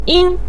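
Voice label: "ying", Mandarin Chinese syllable, neutral tone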